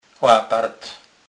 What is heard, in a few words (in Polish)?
"lapart", kurpiow